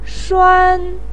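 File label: "shuan1", Mandarin Chinese, pinyin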